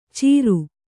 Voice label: "ಚಿರು", kannada

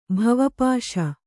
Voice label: "ಭವಪಾಶ", Kannada

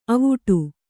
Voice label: "ಅವಟೆ", Kannada

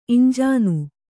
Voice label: "ಇಂಜೀನು", Kannada

